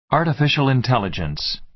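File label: "artificial intelligence", American English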